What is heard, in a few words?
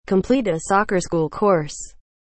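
"complete a soccer school course", English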